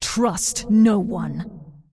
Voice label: Undead Female Warrior NPC Farewell